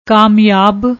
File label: "kamyab", Punjabi; ਕਾਮਯਾਬ